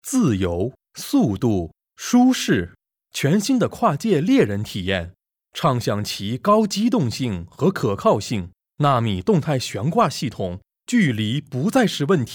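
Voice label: Chinese Mandarin, Commercial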